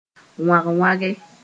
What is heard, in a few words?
"wangwange", Mooré